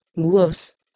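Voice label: "gwous", Proto-Indo-European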